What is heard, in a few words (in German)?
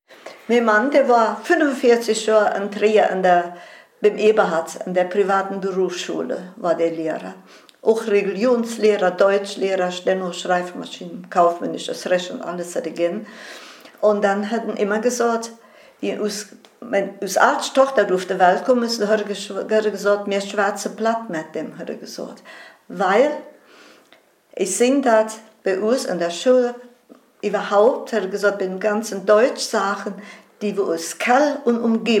platt; Kell am See; Platt bringt viel